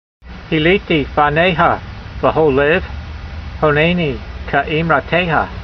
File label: voice, hebrew language; Psalm 119:58; heeleetee fa'neyha ve'hol-layv, ho'naynee ke'eemrateyha